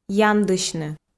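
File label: "яндысьны", Komi, female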